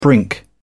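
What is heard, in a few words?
"brink", British English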